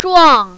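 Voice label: "zhuang" fourth tone, mandarin, female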